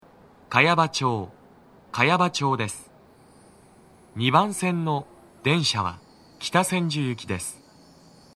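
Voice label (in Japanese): 茅場町駅, 2番線 到着放送, 男声, TOA天井型での収録です; 2番線 北千住・南栗橋方面; 放送は「北千住行」です。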